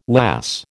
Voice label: "lass", American